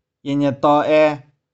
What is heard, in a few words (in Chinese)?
"ih4nih4tau3ae3", 玉環